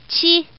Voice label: Woman